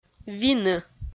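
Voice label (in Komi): "вины", Komi, female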